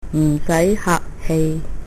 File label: thanks response, cantonese